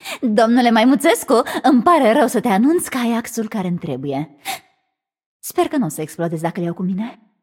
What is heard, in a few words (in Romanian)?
Room2 VO Puzzle View, vo, ro-ro